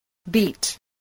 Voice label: "beat", English

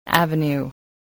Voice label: "avenue", english

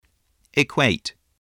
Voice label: "equate", voice, english

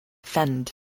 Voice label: "fend", spelling

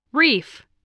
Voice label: "reef", spelling voice

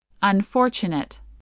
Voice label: "unfortunate", English spelling voice

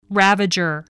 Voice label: "ravager", english

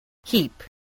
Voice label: "heap", English, spelling

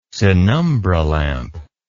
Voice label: "sinumbra lamp", words

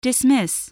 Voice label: "dismiss", spelling

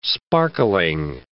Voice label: "sparkling", english

